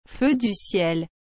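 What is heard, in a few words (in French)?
"feu du ciel", French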